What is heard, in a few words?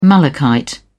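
"malachite", British English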